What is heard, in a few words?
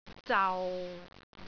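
"zau6", Cantonese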